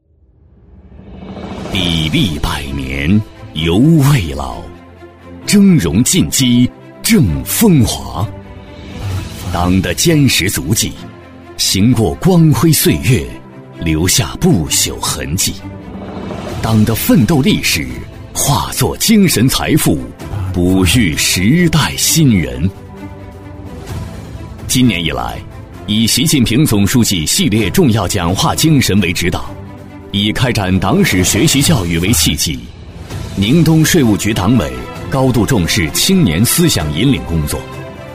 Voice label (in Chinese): 男 纪实专题片 浑厚 磁性; 高端品质; 大气浑厚